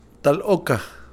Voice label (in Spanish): dedo del pie, Kakchikel